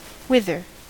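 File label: "whither" English, US; pronunciations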